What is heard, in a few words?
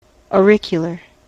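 "auricular", US English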